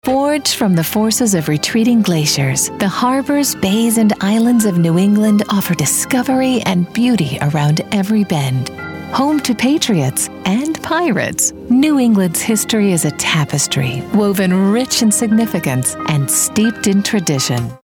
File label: US narration soft